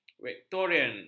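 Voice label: "victorian", Malay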